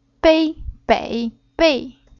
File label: "Bei", Chinese